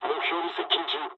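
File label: near waypoint mood low, speech japanese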